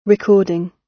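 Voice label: "recording", British English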